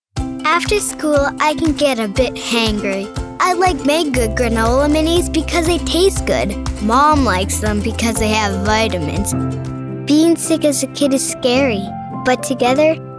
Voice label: kids commercial